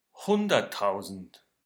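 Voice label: "hunderttausend", German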